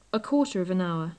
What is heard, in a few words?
"a quarter of an hour", English